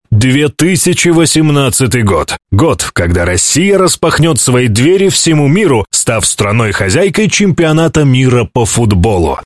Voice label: Russian Male; FIFA World Cup Russia 2018